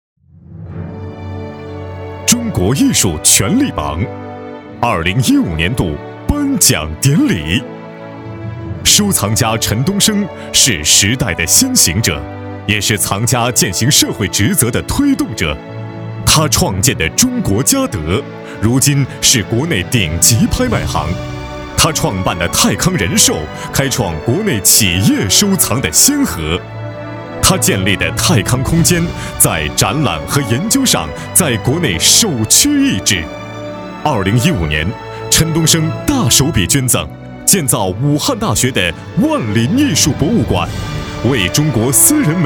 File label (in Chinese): 男国 专题 颁奖 激情; 泰康